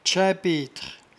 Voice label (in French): "tchaimpetre", patois jura